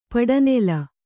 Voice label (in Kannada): "ಪಡನೆಲ", Kannada